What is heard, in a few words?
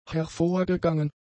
"hervorgegangen", German; adjectives